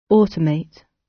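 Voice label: "automate", British; Design and innovation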